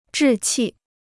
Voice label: "志气", Chinese